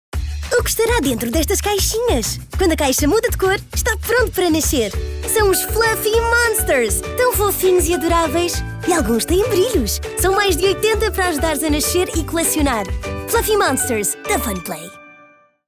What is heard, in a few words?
Spot